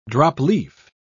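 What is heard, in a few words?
"drop-leaf", British English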